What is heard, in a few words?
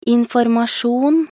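"informasjon", Norwegian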